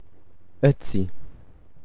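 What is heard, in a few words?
"Oetzi", German pronunciation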